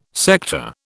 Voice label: "sector", British